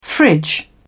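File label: "fridge", British English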